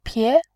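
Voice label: "pie", Mandarin, tone 2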